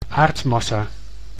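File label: "aardmassa", Dutch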